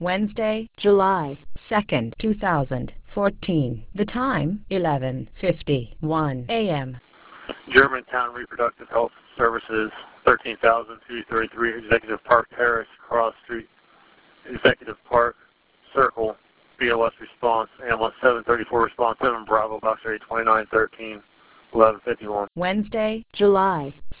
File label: RADIO